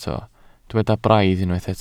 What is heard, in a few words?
"braidd", Welsh